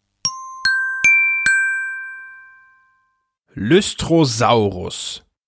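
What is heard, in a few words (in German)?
"Lystrosaurus", German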